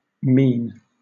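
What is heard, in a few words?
"mien", British English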